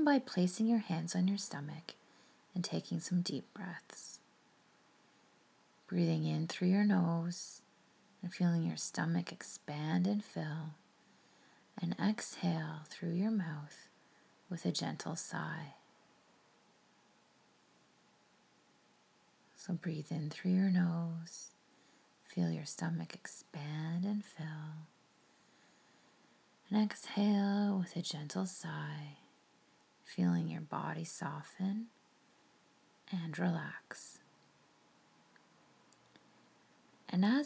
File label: Nature Connection Meditation